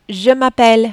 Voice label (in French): "je m appelle", French